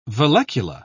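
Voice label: "vallecula", British English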